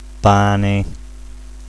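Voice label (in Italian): "pane", Italian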